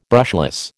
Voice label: "brushless", American